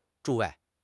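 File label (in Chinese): "诸位", Chinese; HSK6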